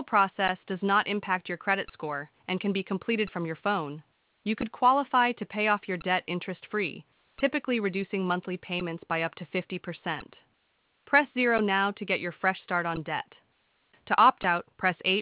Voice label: Medical services, AI-generated audio; 1 in 4 calls reviewed by hiya contain ai generated audio